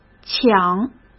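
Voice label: "抢", Mandarin Chinese; hsk; chinese-flashcards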